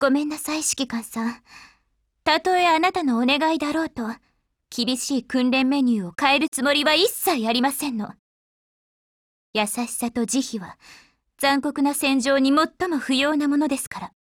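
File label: DIALOGUE Japanese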